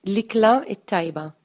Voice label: bon appetit maltese

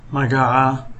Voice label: name, somali

